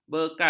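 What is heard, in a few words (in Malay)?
"bekal", Malay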